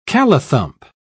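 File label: "callithump", British English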